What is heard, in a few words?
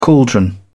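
"caldron", English, British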